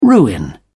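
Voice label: "ruin", English, British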